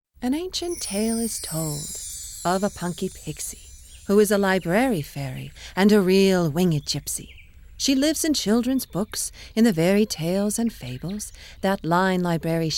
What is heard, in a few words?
Story Narration